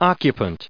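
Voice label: "occupant", US English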